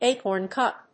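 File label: "acorn cup", English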